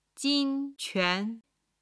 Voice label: "jin1 quan2", Chinese

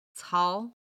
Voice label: "cao", Chinese

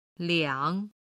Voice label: "liang3", Chinese

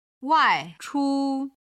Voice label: "waichu", chinese

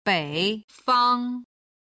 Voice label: "bei3fang1", Chinese

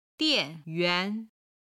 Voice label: "dian4yuan2", chinese

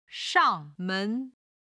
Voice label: "shangmen", Chinese

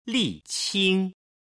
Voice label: "liqing", Chinese

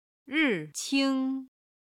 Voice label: "ri4qing1", chinese

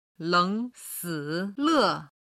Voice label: "leng si le", Chinese

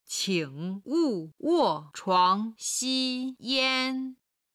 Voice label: "qing3 wo4 chuang2 wu4 xi1 yan1", Chinese; 禁止表現

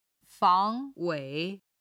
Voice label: "fang2 wei3", chinese

